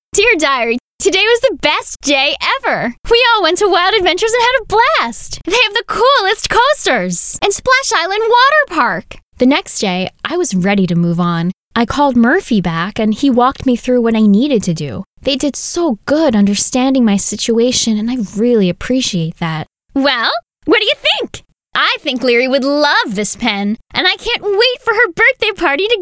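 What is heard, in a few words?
voice over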